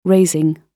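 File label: "raising", British English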